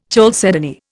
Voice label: "chalcedony", Indian